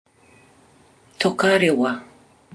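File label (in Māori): "tokarewa", Māori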